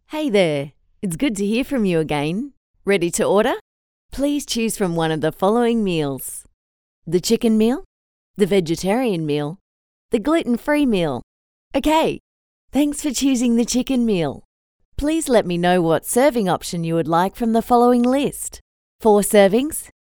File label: VIRTUAL ASSISTANT